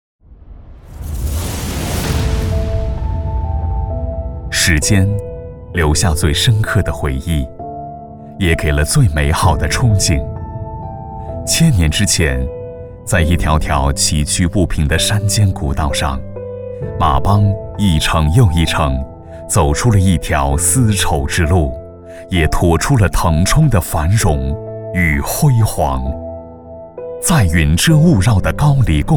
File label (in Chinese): 时尚品质唯美年轻; 纪录片 > 人文历史